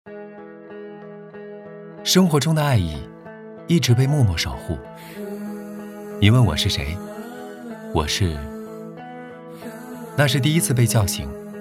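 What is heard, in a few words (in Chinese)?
男国大音-自然-生活的独白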